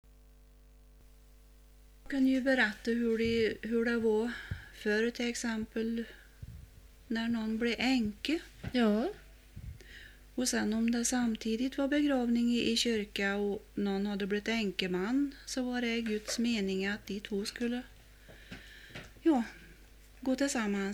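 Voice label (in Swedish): Långserud Värmland, dialekter; Folkminnen; Svenska sagor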